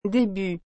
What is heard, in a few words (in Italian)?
Francese